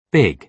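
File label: "big", British English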